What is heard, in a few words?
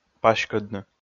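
"паськӧдны", Komi, male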